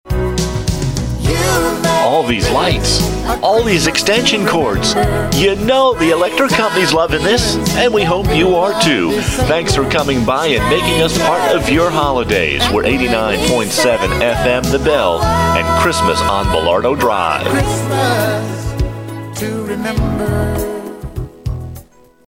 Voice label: sample announcement